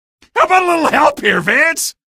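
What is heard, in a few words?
citizen evac defend us, male; video games; npc; vance; source engine; episodic; episode 1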